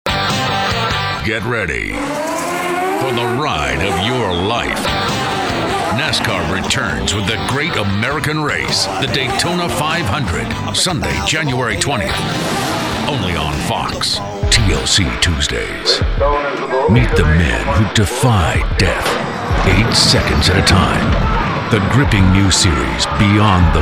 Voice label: Promo, men